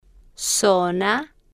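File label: "zona", Spanish, female, slow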